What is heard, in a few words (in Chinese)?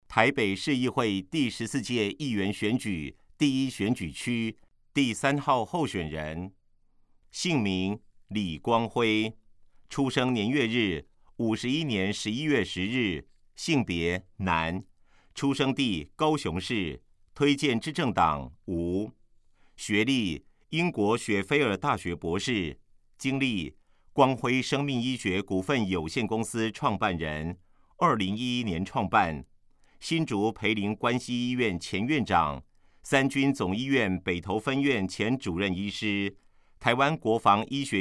國語; 臺北市; 有聲公報; 市議員; 臺北市議會第14屆議員第1選舉區 (士林、北投區)候選人介紹